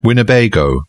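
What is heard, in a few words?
"winnebago", British English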